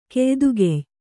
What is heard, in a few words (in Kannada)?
"ಕೆಯ್ದುಗೆಯ್", Kannada